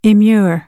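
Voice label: "immure", American English; AmericanBritish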